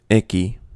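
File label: "icky", US English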